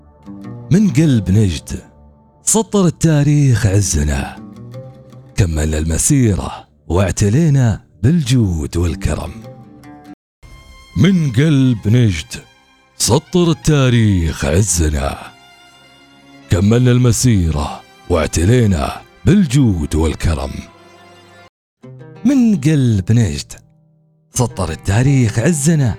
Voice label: arabic